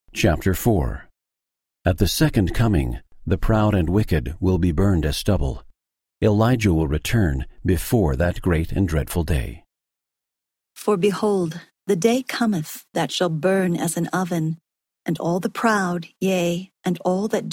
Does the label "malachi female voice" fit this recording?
Yes